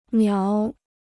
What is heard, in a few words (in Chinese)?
"苗", Chinese